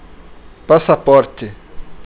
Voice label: passport, portuguese; word of day